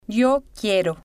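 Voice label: yo Quiero, Spanish, female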